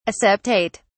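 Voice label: "aseptate", British English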